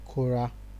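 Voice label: "kora", Nigerian Pidgin, male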